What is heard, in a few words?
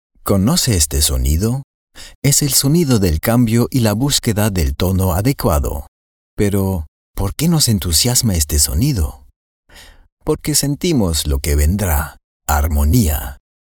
plain Voice; LOFT VOICES